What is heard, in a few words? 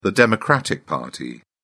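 "democratic party", British English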